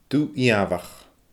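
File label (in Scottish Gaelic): "dubh fhiamhach", Scottish Gaelic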